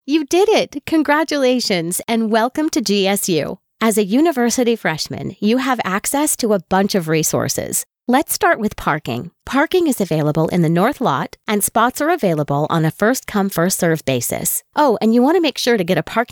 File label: Elearning